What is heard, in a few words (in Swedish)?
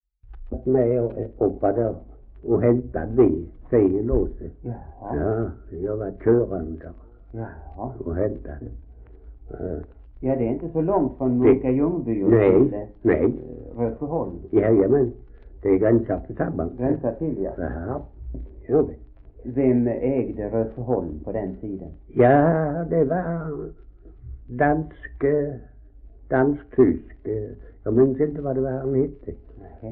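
Ausås Skåne, svenska sagor dialekter; Folkminnen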